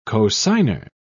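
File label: "cosigner", British English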